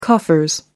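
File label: "coffers", British English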